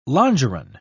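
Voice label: "longeron", British English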